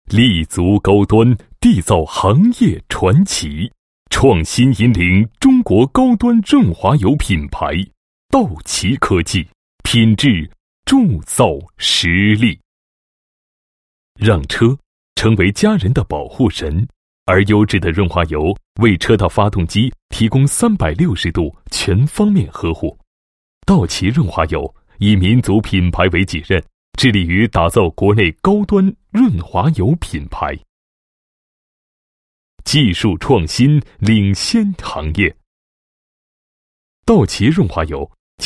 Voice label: 男 产品介绍 宣传介绍; 道骐润滑油宣传介绍

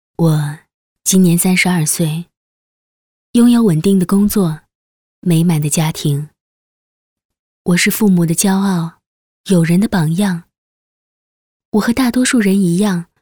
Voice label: 女 旁白 瑜伽 自述

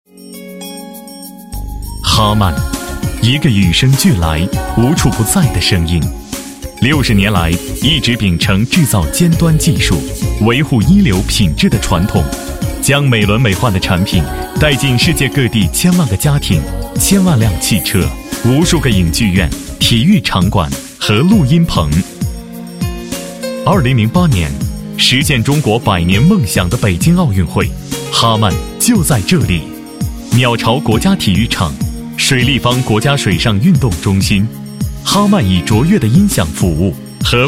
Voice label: 男, 深沉大气

